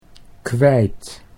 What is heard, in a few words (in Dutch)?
"kwijt", Dutch